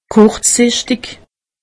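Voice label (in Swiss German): "kurzsichtig", Alsatian; medecine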